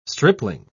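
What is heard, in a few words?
"stripling", British English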